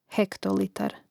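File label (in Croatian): "hektolitar", Hrvatski; mreznik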